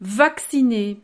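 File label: "vacciner", French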